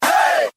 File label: "hey", group of men